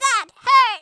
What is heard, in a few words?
ouch, Grandpa speech